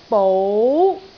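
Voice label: "bou2", Cantonese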